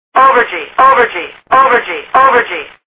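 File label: "G Over Limit", English; DCS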